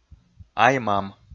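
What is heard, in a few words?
"ай-мам", Komi, male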